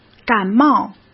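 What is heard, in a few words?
"感冒", Mandarin Chinese; chinese flashcards; hsk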